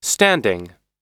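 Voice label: "standing", American English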